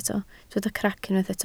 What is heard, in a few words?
"crac", Welsh